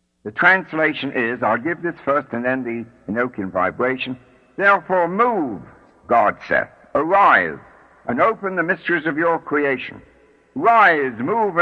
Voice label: Short Enochian Call (vibrated); Enochian Magick; The World of Enochian Magick; Israel Regardie